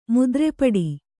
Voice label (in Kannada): "ಮುದ್ರೆಪಡಿ", Kannada